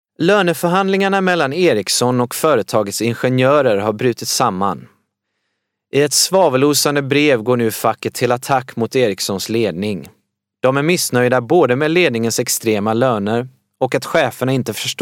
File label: Swedish, Scandinavian, Male; Home Studio, 20s-30s